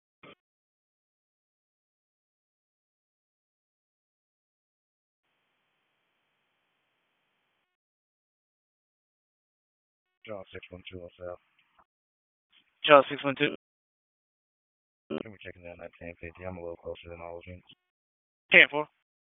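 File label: Police Dispatch, p25; Raleigh; Southeast District; wake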